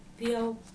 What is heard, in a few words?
"piɔ̈u", Dinka